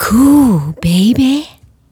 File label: Kool Baby, Swingin Chiks Spoken